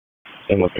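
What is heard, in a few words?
Police Dispatch; Wake Forest Police Disp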